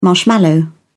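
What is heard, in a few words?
"marshmallow", English, British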